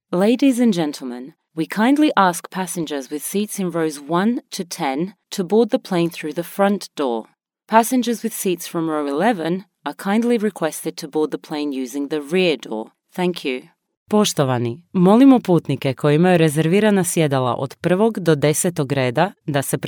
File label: public announcement english